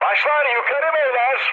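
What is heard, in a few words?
Turkish near waypoint, mood med, speech; War Thunder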